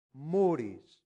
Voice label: "muris", latin; santillana

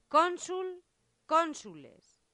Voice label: "consul consules", latin; santillana

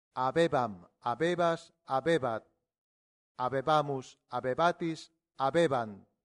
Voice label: habebam, latin; santillana

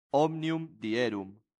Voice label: "omnium Dierum", Latin; santillana

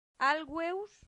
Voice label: "alveus", Latin; santillana

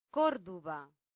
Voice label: "corduba", Latin; santillana

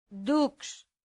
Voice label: "dux", latin; santillana

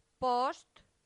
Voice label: "post", latin; santillana

